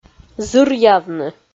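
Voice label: "зыръявны", Komi, female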